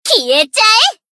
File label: Battle Shout; Mutsuki